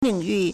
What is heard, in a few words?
"ling3yu4", Chinese